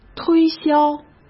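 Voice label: "推销", Mandarin Chinese; hsk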